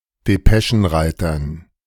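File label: German "Depeschenreitern"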